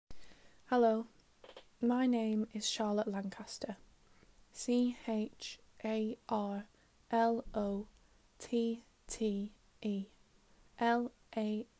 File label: english french introduction